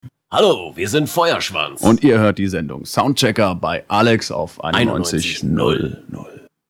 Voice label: Station ID